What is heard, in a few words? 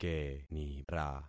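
"kenira", concatenated syllables; Affixing experiment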